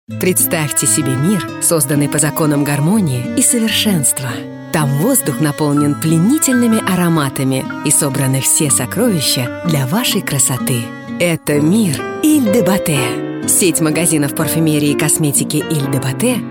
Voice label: russian voice over female commercial